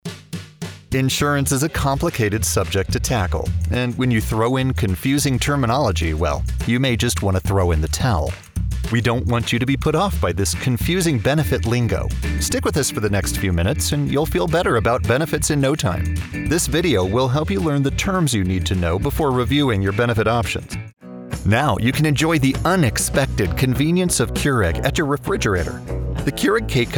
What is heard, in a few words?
narration